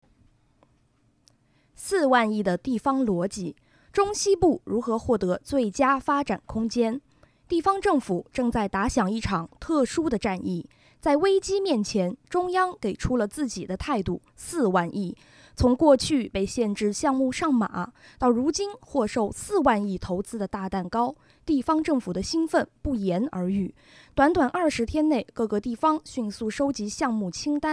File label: chinese